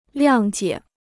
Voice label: "谅解", Chinese

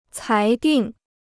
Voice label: "裁定", Chinese

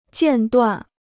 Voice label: "间断", Chinese

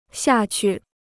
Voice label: "下去", Chinese

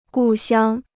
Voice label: "故乡", Chinese

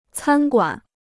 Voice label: "餐馆", Chinese

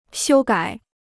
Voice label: "修改", Chinese

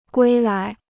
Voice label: "归来", Chinese

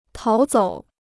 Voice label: "逃走", Chinese

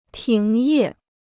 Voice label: "停业", Chinese

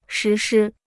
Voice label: "实施", Chinese

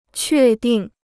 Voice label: "确定", Chinese

